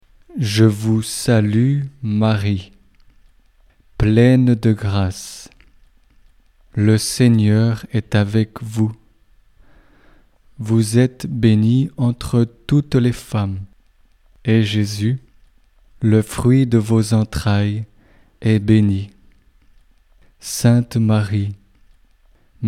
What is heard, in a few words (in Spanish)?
ave maria frances lento